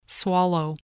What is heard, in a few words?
"swallow", voice spelling